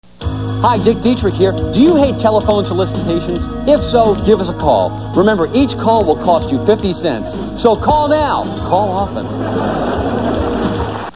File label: Phone Solicitation; NightStand; Upcomming Shows